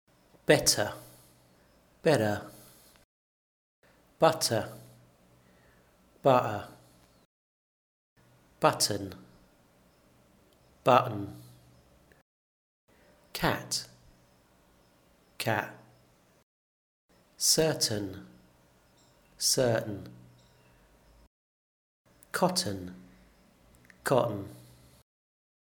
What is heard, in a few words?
glottal stop finishes